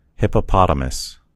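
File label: "hippopotamus", American English; gcompris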